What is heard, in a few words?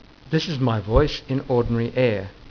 ordinary speech; SPEECH HELIUM